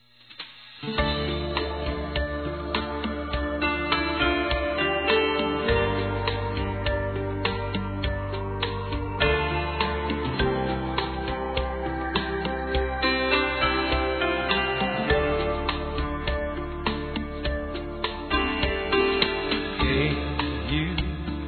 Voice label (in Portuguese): Para Padrinho Feminino, Telemensagens, Dia dos Pais; Telemensagens 2017